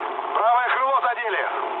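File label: right wing, mood low, Russian; War Thunder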